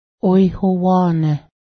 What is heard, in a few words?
important, Cayuga; oihowá:nęh